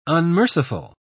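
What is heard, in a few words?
"unmerciful", British English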